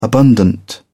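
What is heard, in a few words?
"abundant", English, British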